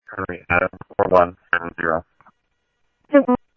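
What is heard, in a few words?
Simulcast Chandler Patrol B, scanner; Chandler-Ptrl B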